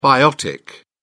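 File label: "biotic", British English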